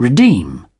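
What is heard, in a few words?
"redeem", British English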